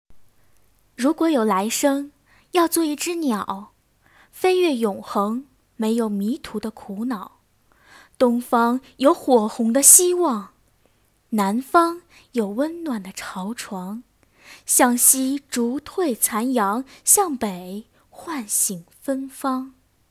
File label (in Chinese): 女, 专题, 情感独白